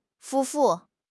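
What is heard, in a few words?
"夫妇", Mandarin Chinese; HSK; HSK6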